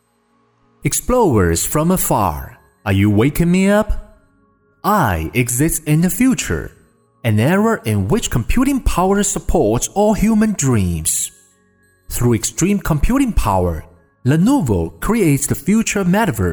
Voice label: 男 英文解说

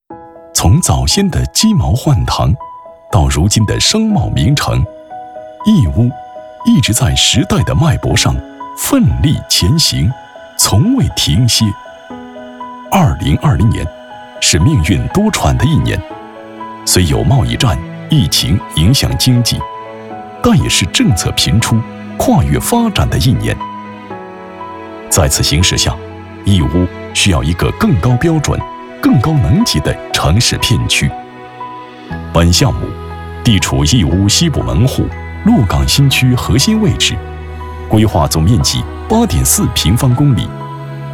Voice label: 男 城市宣传类 义乌 大气 讲述

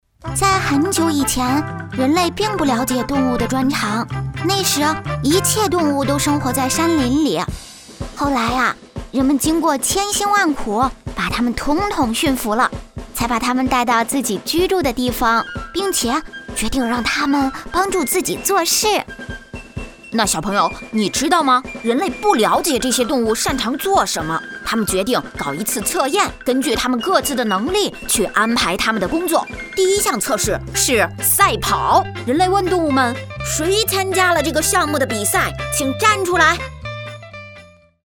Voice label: 女 角色 女童 男童

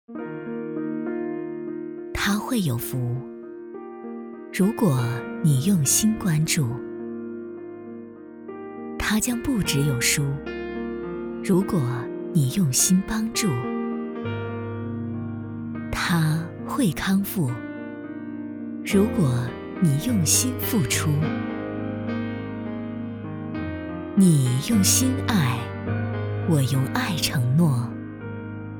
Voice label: 女, 深情公益广告; 为爱承诺

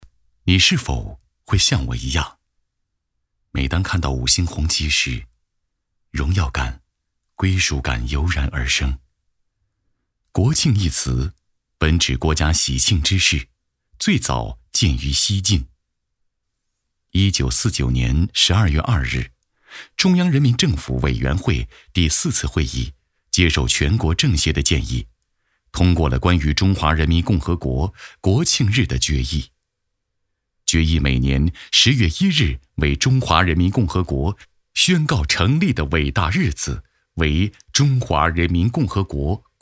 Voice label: 男, 国庆献礼, 超燃; 一抹红

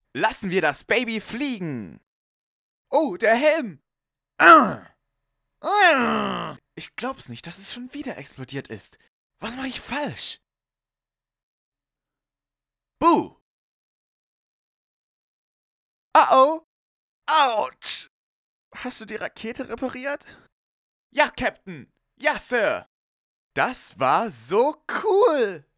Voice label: 德语男 德语角色

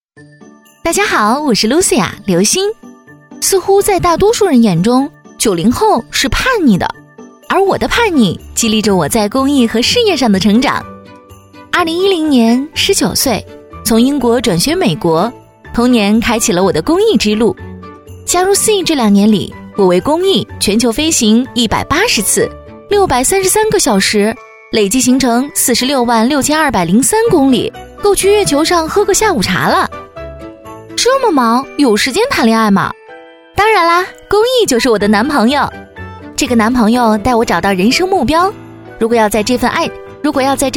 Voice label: 女, 微电影 竞选配音

